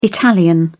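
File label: "italian", British English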